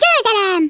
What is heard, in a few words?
"victory", Hungarian; Worms Armageddon